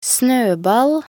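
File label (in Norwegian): "snøball", Norwegian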